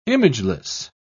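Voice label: "imageless", British English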